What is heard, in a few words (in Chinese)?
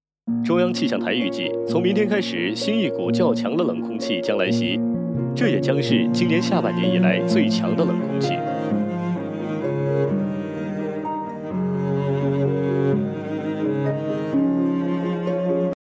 冷空气袭来新闻播报